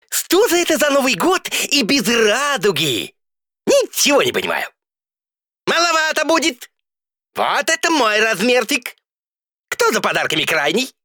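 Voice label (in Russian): Muzhik Padal proshlogodnii sneg, man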